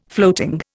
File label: "floating", Indian